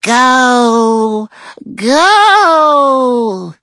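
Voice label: loading, English; Cookie Run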